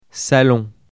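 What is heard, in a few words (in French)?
"salon", French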